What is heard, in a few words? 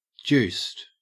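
"juiced", Australian English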